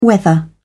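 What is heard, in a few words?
"whether", British English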